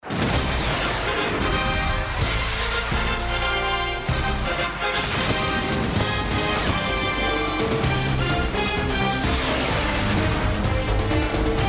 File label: Promo; CLAR; Vin Scully; Time For CLAR; CJ Box